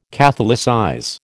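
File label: "catholicize", American English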